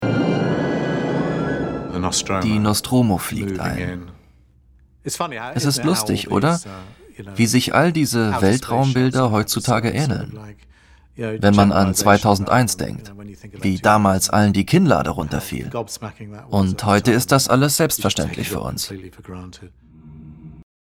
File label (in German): Voiceover Cineast nachdenklich dunkler; SKY; LOFT VOICES